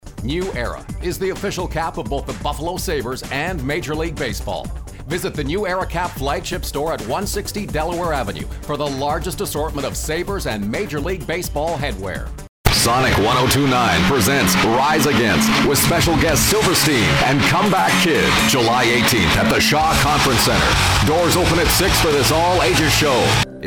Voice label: Commercial